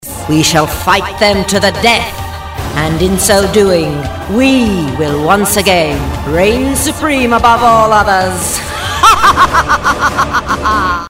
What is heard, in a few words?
Witch